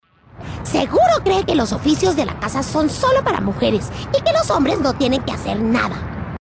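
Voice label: Spanish Latin America female character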